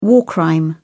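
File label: "war crime", English, British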